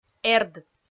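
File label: "эрд", Komi, female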